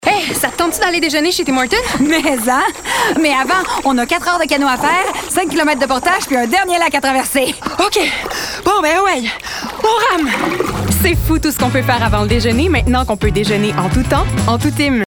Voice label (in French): francais quebecois naturel annonceur personnage humour affirmee; tim hortons; amicale